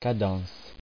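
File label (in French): "cadence" French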